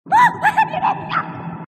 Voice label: grunt; h2dialogue; grunt crazy